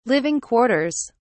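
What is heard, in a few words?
"living quarters", British English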